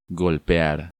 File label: "Golpear", Spanish